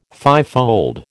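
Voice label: "fivefold", American English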